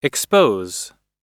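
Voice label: "expose", American English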